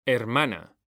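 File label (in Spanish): "hermana", Spanish, male; mekanta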